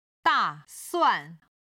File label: "da4suan4", Chinese